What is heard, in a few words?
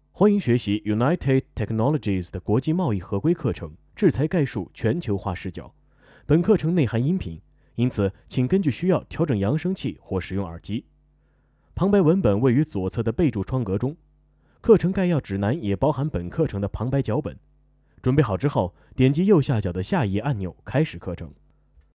male Chinese smooth, commercial; 30-45